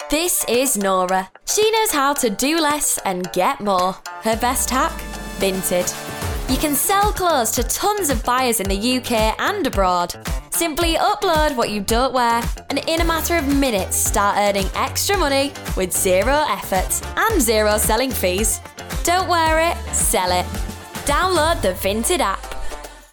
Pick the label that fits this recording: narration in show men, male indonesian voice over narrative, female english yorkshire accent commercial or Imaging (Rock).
female english yorkshire accent commercial